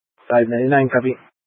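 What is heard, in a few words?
County Fire, Scanner audio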